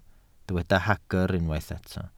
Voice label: "hagr", Welsh